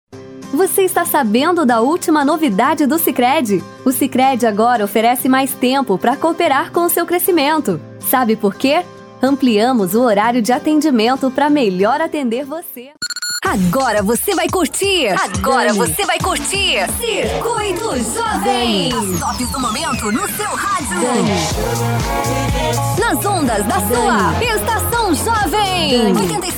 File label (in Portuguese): locutora